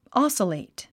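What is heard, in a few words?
"oscillate", English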